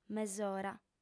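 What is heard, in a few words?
"mesora" Sardinian, female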